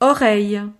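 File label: "oreille", French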